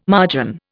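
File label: "margin", Indian English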